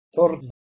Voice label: "thorn", Anglo Saxon

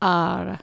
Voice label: "ar" Anglo Saxon

